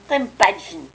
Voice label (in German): "rimpatschn", erzgebirgisch